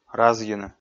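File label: "разйыны", Komi-Zyrian, male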